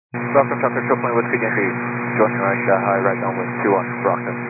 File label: 122 725MHz, scanner radio